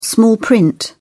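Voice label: "small print", English, British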